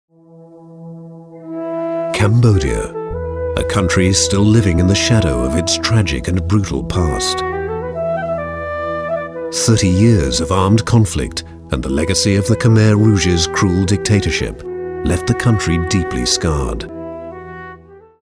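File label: British English, male, narration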